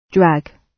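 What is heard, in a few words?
"drag", British English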